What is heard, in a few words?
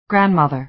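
"grandmother", American English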